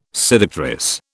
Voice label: "seductress", British